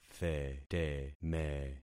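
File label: "theteme", concatenated syllables; Affixing experiment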